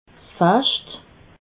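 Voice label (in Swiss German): "facht", Alsatian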